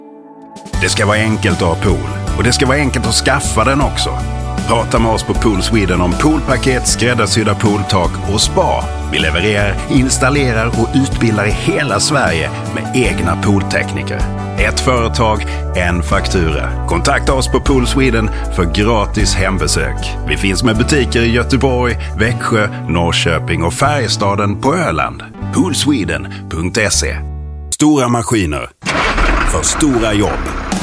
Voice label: commercial radio ads Swedish